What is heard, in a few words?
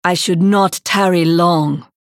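Hero Idle US English, Женщина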